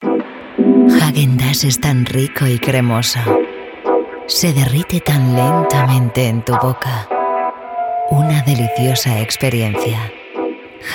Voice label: Warm Calm ad voiceover

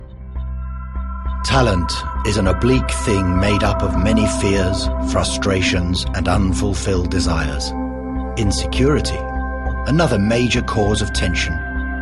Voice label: Documentary

